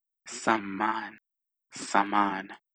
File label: hindi samman saman